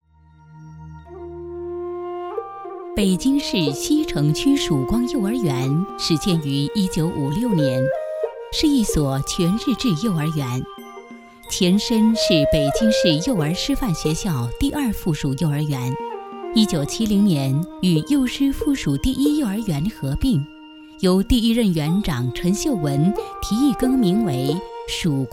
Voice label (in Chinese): 广告宣传 宣传片, 国语女声配音; 成熟; 曙光幼儿园